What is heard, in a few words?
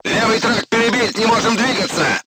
voice message left track knocked down, crew tanks russian